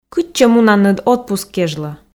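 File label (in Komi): кытчӧ мунанныд отпуск кежлӧ, Komi, female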